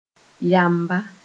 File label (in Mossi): "yamba", moore